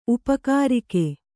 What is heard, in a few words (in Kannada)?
"ಉಪಕಾರಿಕೆ", Kannada